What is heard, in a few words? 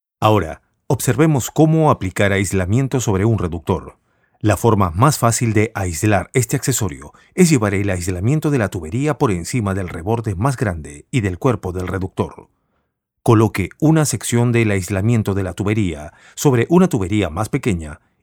Spanish, Narration Industrial